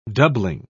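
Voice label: "doubling", British English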